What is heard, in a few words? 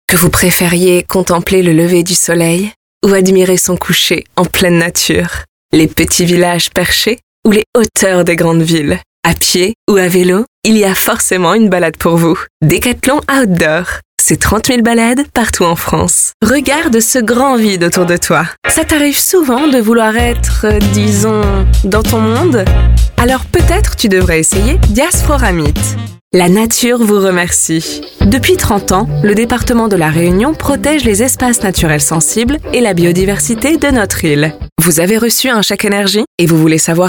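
French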